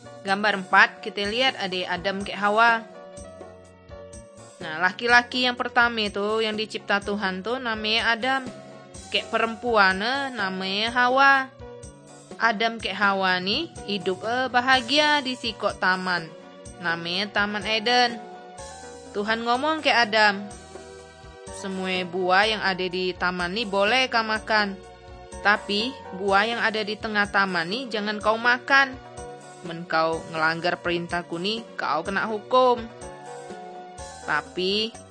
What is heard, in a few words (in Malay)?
kabar baik good news malay bangka